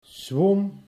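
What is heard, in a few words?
"zwom", Dutch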